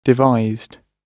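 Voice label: "devised", British English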